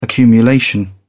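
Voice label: "accumulation", British English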